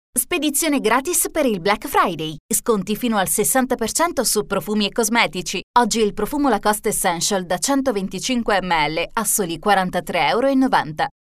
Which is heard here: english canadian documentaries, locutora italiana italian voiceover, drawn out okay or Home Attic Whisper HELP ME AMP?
locutora italiana italian voiceover